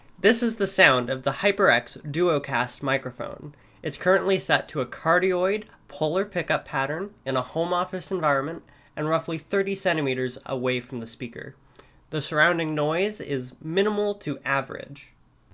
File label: Cardioid; HyperX DuoCast